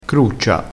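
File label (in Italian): "cruccia", dialettale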